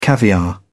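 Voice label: "caviar", British English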